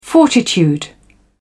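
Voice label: "fortitude", English, British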